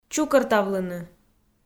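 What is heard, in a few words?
"чукӧртавлыны", Komi, female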